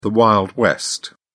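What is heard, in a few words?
"wild west", British English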